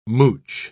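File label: "mouch", British English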